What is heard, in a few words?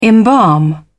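"embalm", British English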